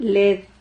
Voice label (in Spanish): "led", Silabas